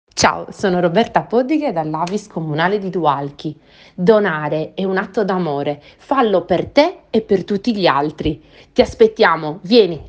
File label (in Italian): promo